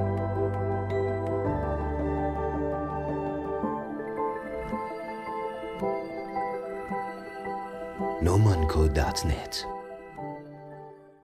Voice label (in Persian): فایل صوتی خوش آمدگویی با داخلی های فروش، بازرگانی، فنی و مهندسی، مالی، مدیریت و اپراتور